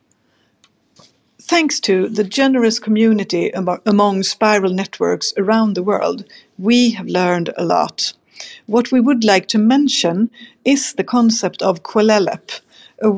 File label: voiceover